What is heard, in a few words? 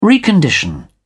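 "recondition", British English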